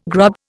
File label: "grub", Indian